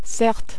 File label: "certes", French